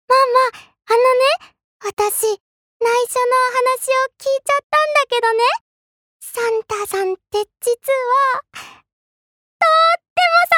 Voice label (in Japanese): アニメ